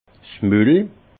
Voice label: "mul", alsace; vin; bouche

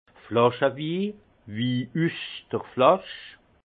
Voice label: "flasch wii", alsace; vin; vin en bouteille

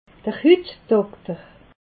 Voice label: "hutdokter", alsace

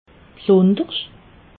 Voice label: "bsundersch", alsace

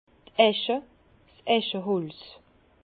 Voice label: "esche", Bas Rhin

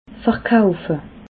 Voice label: "verkaufe", alsace

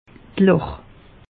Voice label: "lach", alsace; meteo

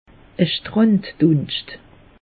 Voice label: "stranddunscht", alsace; meteo

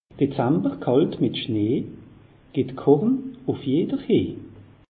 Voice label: "dezember", alsace; meteo

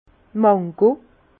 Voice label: "mango", Bas Rhin; vin; mangue

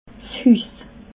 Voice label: "hus", alsace

